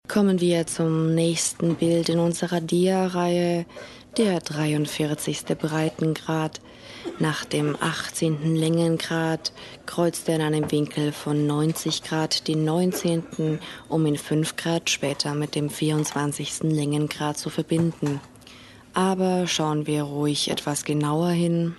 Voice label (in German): Werbung; Lufthansa